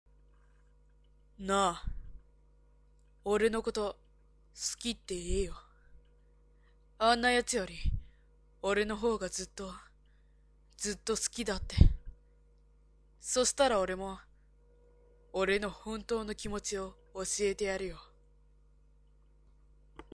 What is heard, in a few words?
boy